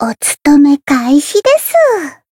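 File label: encounter, jp; soira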